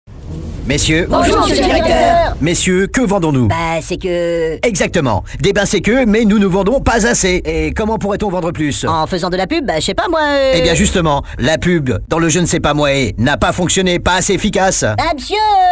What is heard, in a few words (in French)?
radio pub spot